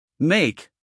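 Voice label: "make", American English, male; 1000 hours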